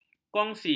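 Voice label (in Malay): "kongsi", Malay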